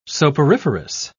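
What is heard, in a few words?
"soporiferous", British English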